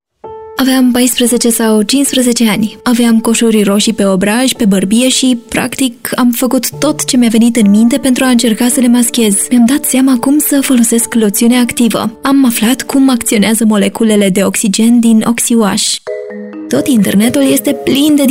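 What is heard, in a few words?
romanian medical narration, Mujer; Narración médica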